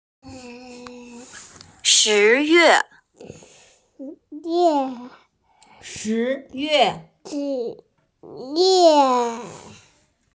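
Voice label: "shi2yue4", Mandarin Chinese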